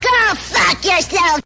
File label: go fuck yourself cartoon; Voice; expletives